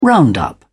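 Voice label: "round up", British English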